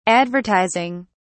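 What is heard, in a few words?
"advertizing", British English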